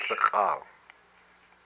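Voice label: "fat", Hupa, male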